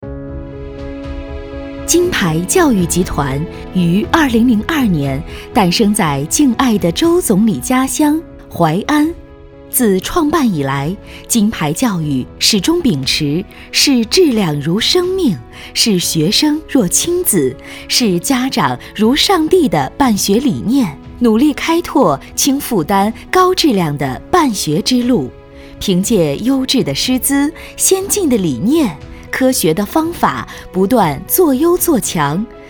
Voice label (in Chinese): 女 专题 企业 教育集团; 金牌教育集团